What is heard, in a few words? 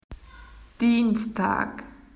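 "Tuesday", Australian English; PictureDictionary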